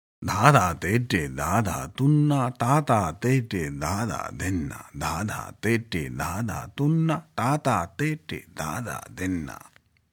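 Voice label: Main Bol 2x-Spoken, Benares Kaida 1 at double speed, Tintal; Benares Kayda 1; Devanagari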